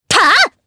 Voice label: Attack, jp; Sonia; kings raid